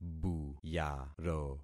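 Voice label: "buyaro", concatenated syllables; Affixing experiment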